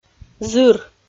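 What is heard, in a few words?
"зыр", Komi-Zyrian, female; audio dict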